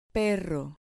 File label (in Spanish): "perro", Spanish